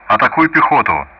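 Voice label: Speech Russian; DCS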